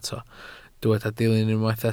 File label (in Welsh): "dilyn" Welsh